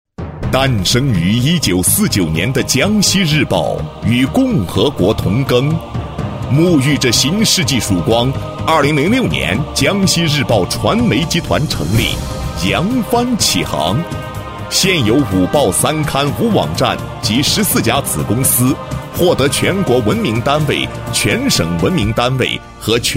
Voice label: 男 宣传片; 日报传媒集团宣传片

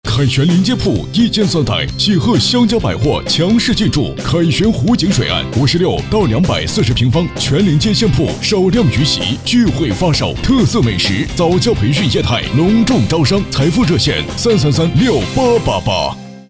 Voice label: 广告; 凯旋湖景水岸

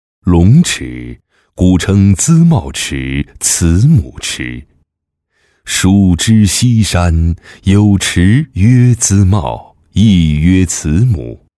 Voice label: 男 专题 古风优雅; 龙池

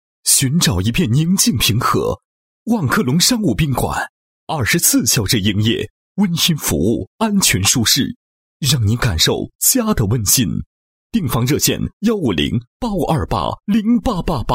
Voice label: 男 宣传广告; 万客隆商务宾馆宣传

